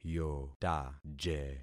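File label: "yotaje", concatenated syllables; Affixing experiment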